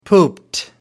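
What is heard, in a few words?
"pooped", British English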